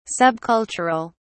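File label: "subcultural", British English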